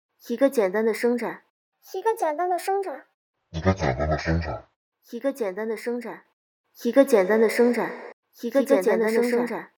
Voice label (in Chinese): 声音变调 左右声道 基础调音